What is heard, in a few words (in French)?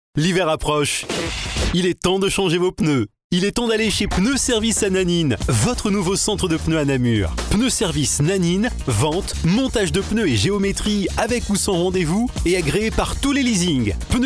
PUB VOIX OFF